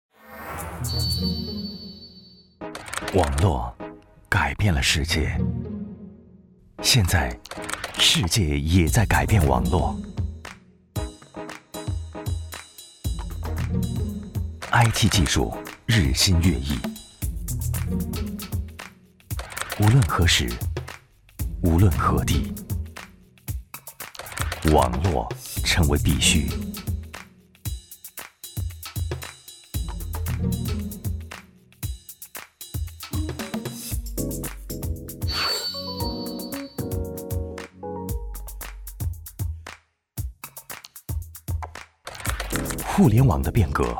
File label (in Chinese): 男, 国语男声配音, 混厚专题; 网络改变世界